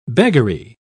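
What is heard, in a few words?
"beggary", en, uk British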